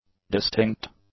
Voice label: "distinct", English; adjectives